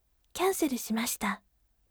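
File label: "cancel shimashita", gentle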